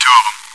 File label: chitchat; svencoop